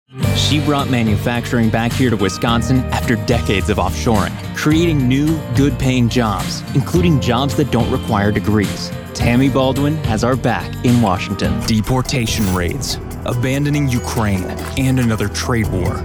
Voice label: Bilingual Political

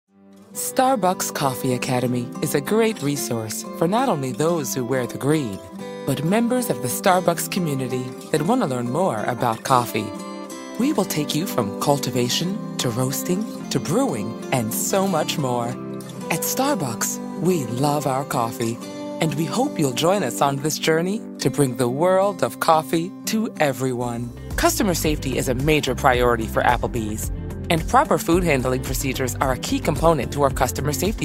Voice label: E Learning

